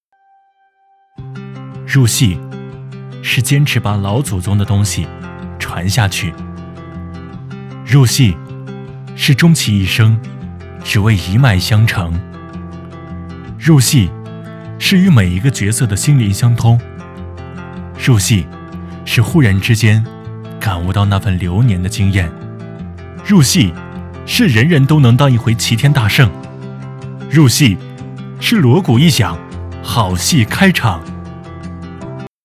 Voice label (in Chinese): 走心解说